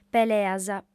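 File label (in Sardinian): "peleas", female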